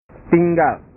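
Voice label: "tinggal", Indonesian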